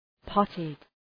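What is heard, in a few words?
"potted", English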